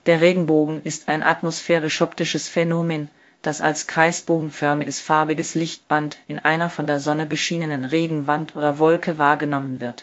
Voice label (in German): speaker, de DE; piper-voices